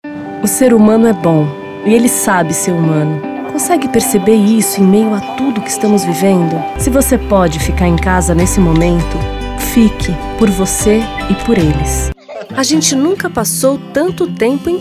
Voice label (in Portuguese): locucao voz acolhedora